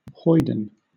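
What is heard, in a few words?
"hoyden", British English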